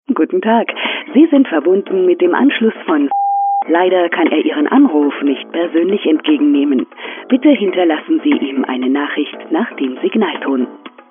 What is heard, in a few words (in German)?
frau